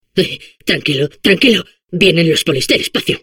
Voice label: Space core babble, es; Ay, tranquilo, tranquilo. Vienen los polis del espacio.; Líneas de voz de los núcleos